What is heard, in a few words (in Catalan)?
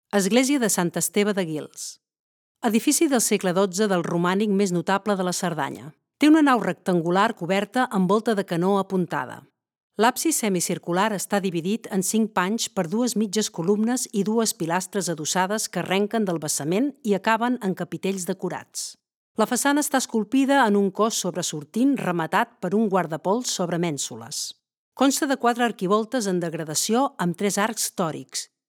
locucio catala; sant esteve guils